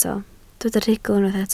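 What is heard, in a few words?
"rhugl" Welsh, spoken word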